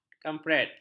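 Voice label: "kampret", Malay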